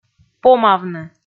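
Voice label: "помавны", Komi, female; kpv audio dict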